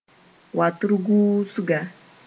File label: "watrguusga", Mooré; Guinea pigeon